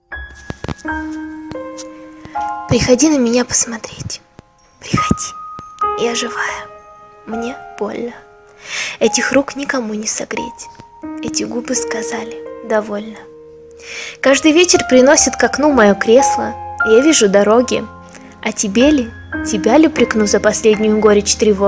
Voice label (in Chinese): 俄语女 抒情